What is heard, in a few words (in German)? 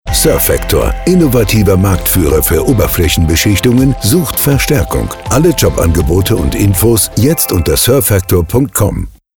Funkspot und Reminder Recruiting